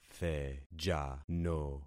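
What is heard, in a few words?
"thejano", concatenated syllables; Affixing experiment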